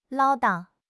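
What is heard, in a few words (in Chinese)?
"唠叨", Chinese; HSK6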